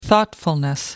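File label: "thoughtfulness", British English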